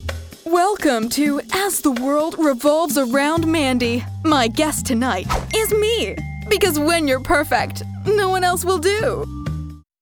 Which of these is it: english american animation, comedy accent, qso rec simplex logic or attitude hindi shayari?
english american animation